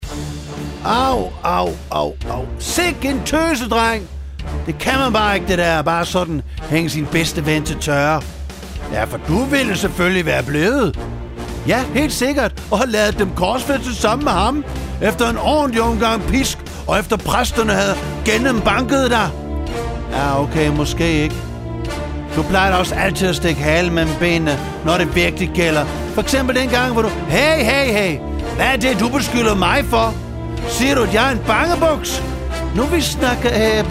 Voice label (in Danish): Pilatus drama med speak